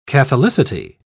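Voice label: "catholicity", British English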